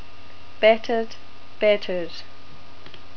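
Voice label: "batted", New Zealand English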